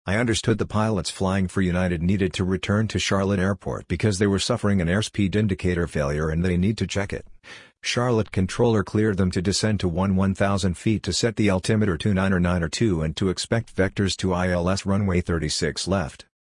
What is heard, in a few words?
Report Speech Situation Bird Strike